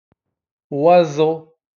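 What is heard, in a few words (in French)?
"oiseau", French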